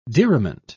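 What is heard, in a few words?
"diriment", British English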